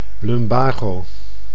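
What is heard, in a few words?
"lumbago", Dutch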